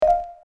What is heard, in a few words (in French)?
conversation